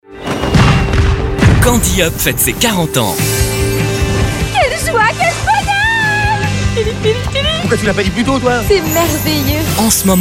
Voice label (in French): enthousiaste, voix off jeunesse; pechu, jeune; CANDY'UP